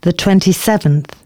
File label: announcements female